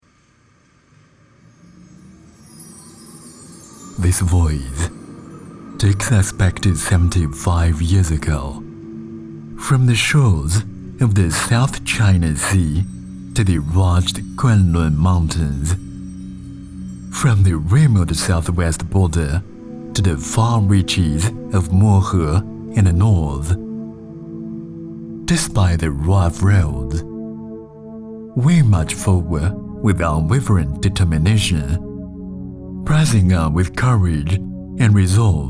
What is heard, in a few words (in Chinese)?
男 英文 英式 纪录片; 英式 BBC纪录片